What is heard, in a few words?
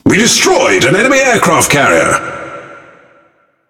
Speech Destroy Enemy Aircarrier, EN GB; World Of Warships